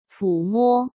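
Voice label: "抚摸", Mandarin Chinese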